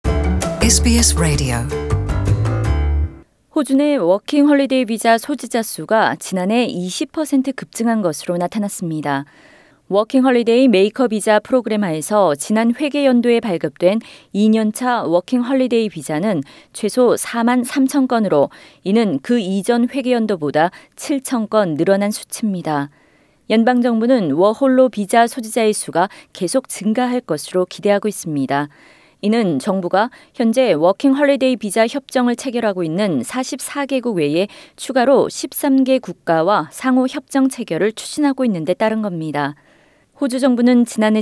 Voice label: korean; SBS Korean; Government to extend working holiday visa program amid application spike